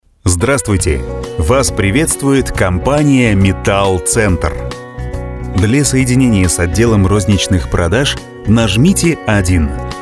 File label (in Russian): Diktor IVR